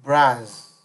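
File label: "bras", Nigerian Pidgin, male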